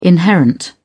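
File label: "inherent", British English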